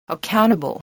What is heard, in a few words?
"accountable", English voice